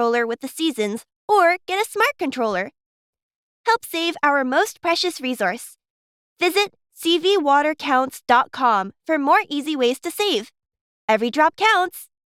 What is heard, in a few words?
Saving Water Promo; Splash's